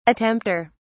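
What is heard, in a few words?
"attempter", English, British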